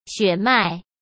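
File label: "血脉", Mandarin Chinese; hsk; chinese-flashcards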